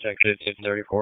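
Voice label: Police Downtown District Dispatch, p25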